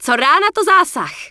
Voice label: Night Elf Female Attack My Target; wowpreklad; czwow; NightElfVocalFemale

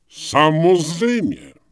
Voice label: Night Elf Male You're Welcome; wowpreklad; czwow; NightElfVocalMale

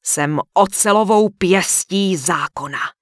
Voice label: Human Female Warrior NPC Vendor; wowpreklad; czwow

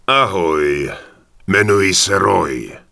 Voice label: Human Male Warrior NPC Greeting; wowpreklad; czwow

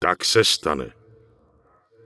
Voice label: Night Elf Male Standard NPC Farewell; wowpreklad; czwow

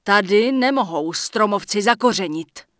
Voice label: Human Female cant create here; Error Messages; wowpreklad; czwow; FemaleErrorMessages

